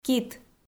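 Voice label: "кит", Komi, female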